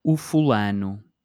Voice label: "o fulano", Portuguese

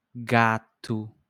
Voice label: "gato" Portuguese

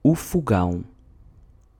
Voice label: "o fogão", Portuguese

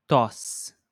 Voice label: "tosse", portuguese

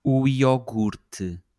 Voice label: "o iogurte", Portuguese

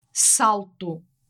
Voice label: "salto", portuguese

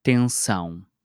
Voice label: "tensão", portuguese

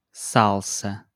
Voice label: "salsa", portuguese

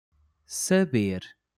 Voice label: "saber", portuguese

